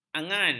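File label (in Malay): "angan", Malay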